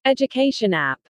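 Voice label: "education app", English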